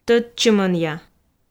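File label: "тӧдчымӧнъя", Komi, female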